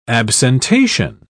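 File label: "absentation", English, British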